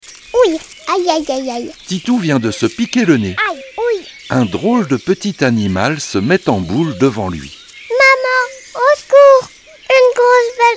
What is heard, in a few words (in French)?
Histoire; Lison le hérisson